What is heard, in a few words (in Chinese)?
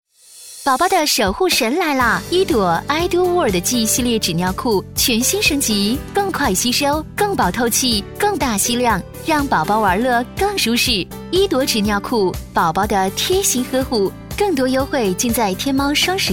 品质广告 纸尿裤母婴 女 活泼轻快